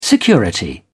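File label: "security", British English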